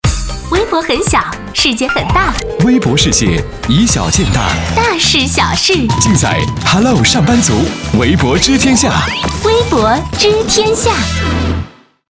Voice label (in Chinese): 女 节目片头 知性; 微博知天下